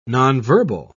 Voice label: "nonverbal", en uk

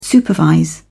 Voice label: "supervise", en uk

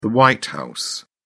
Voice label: "white house", uk

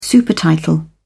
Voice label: "supertitle", en uk

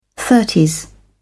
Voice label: thirties, uk

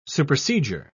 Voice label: "supersedure", en uk

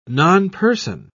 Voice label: "nonperson", en uk

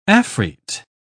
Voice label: "afrite", uk

